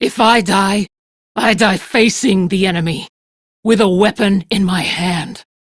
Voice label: Crew Near Death, Female; Dialog; en; Warframe; RailjackCrew; Steel Meridian Female